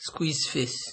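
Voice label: "squeeze face", Nigerian Pidgin, male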